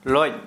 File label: Santali Penis